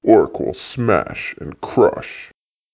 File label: orc man